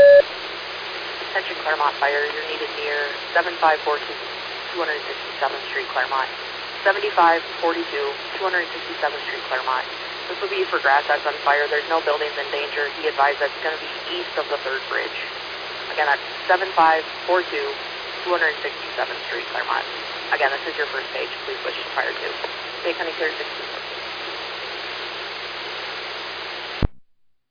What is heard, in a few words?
Fire, dispatch; Clermont